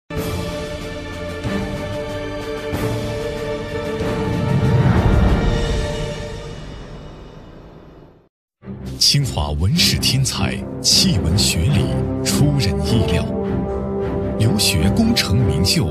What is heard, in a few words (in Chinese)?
男 宣传片; 万能科学家钱伟长